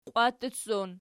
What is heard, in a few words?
"qwattutsson", Archi